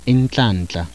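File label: voiceless alveolar fricative nasal, zulu laterals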